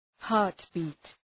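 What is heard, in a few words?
"heartbeat", English; {‘hɑ:rt,bi:t}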